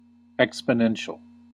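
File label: "exponential", American English; pronunciations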